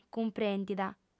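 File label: "cumprèndida", female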